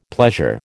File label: "pleasure", American